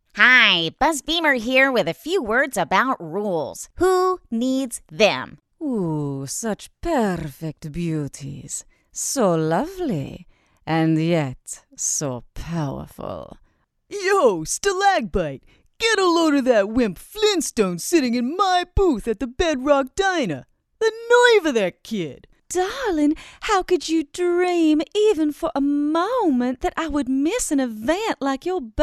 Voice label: Character